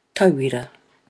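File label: "tauira", Māori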